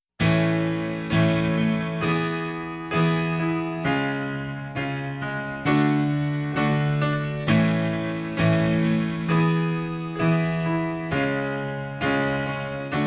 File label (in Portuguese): DIA DOS NAMORADOS F, fem; Telemensagens 2017